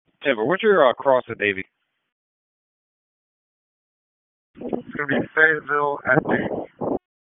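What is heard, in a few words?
Sheriff's Office Dispatch 1, p25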